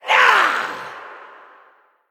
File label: Female Night Elf Attack